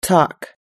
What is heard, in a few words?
"talk", us english